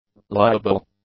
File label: "liable", english; adjectives